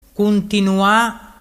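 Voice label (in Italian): "cuntinua", Vernazzese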